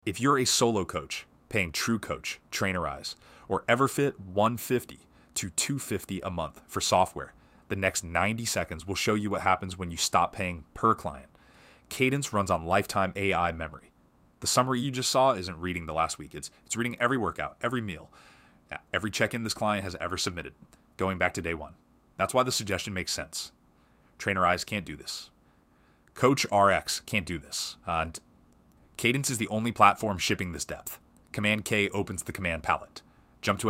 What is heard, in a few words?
tour vo; cadence